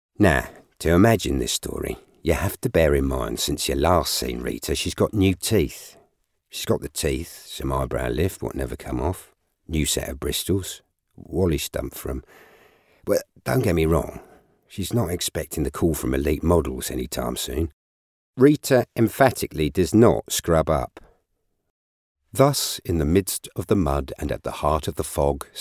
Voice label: Drama